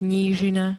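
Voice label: "nížina", Slovak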